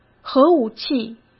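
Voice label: "核武器", Mandarin Chinese; hsk; chinese-flashcards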